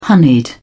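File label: "honeyed", British English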